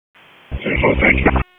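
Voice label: Dispatch, P25; WSO DISP 2; wake p25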